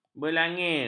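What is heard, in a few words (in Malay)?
"berlangir", Malay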